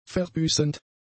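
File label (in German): "verbüßend", German; adjectives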